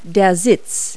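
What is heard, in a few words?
"seat", English word; Multi-Lingual Talking Picture Dictionary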